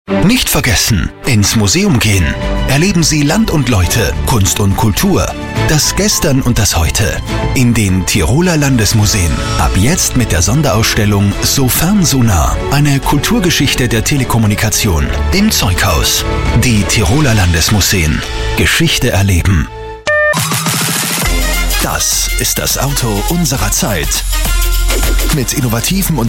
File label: german voice over male commercial